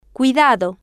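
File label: "cuidado", Spanish, female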